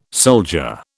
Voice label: "soldier", British